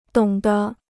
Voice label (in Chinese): "懂得", Chinese